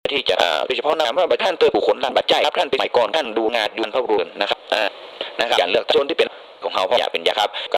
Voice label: tai radio sped up voice; south east asia street recordings

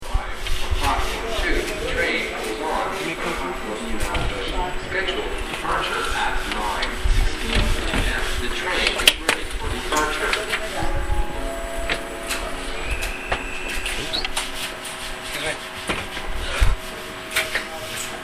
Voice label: train voice

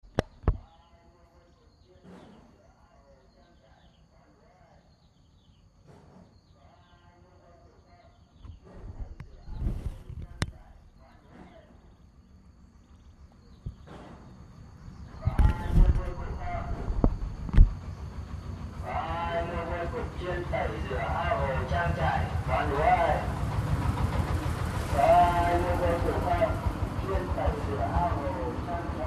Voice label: caller in street, Vietnam; 2019

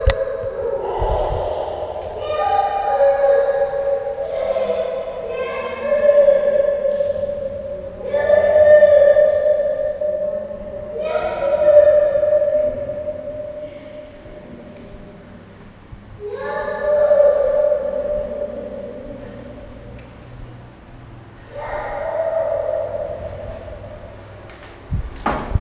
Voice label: echo voices, South India; South India street recordings

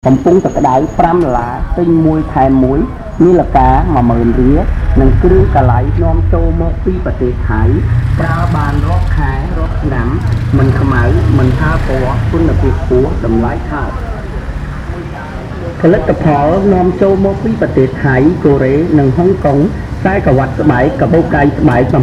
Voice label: voice numbers in street, Cambodia phnom penh; 2019

